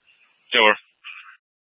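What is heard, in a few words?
police dispatch, Scottsdale PD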